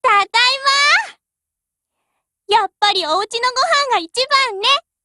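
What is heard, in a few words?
OPERATION OVER Japanese, Voice; GF Alarm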